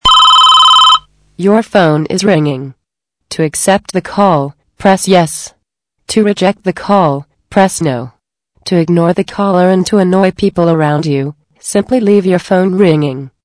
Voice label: Phone instruction